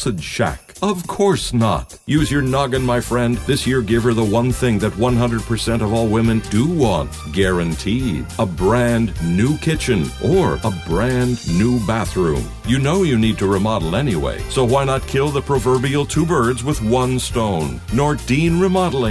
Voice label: Radio Ad Bad Gift